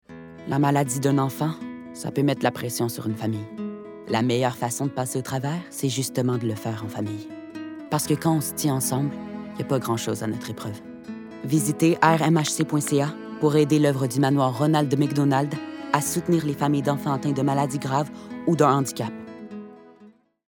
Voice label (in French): Voix publicitaires; Manoir Ronald Mcdonald